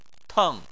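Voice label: "teng", Chinese, fourth tone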